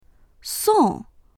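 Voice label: "song4", Mandarin Chinese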